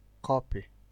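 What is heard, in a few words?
"copy", Nigerian Pidgin, male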